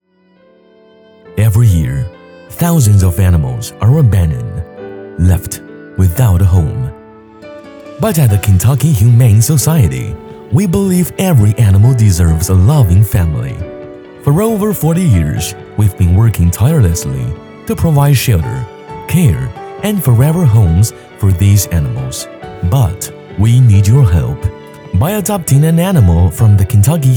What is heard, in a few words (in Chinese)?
男 英文; 肯塔基人道主义